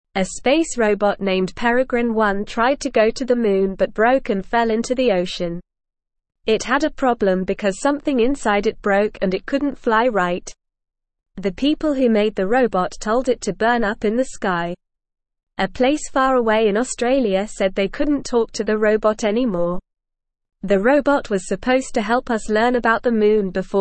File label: English Beginner NORMAL Reading; English Newsroom